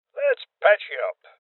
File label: Voices Heal Male Old; Rebel Cops